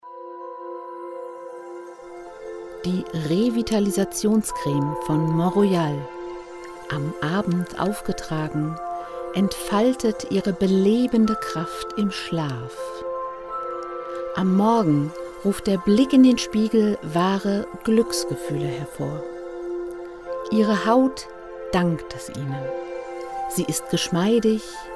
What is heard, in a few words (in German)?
Werbung Creme Vital